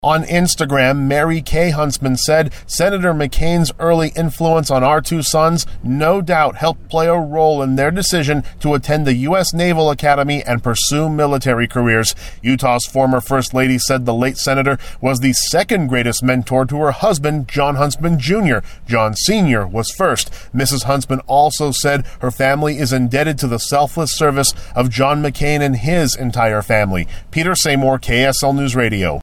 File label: VOICE PSA; MCCAIN HUNTSMAN